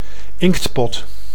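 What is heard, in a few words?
"inktpot", Dutch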